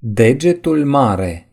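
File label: "thumb", Romanian; gcompris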